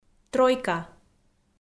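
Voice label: "тройка", Komi, female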